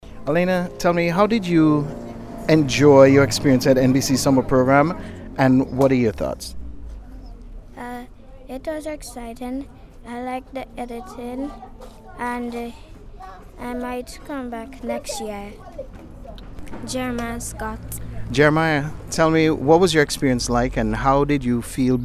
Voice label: VOX POP